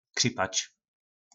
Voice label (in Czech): "Křipač", nářečí Po našimu; Kašlat